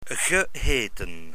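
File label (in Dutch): "geheten", Dutch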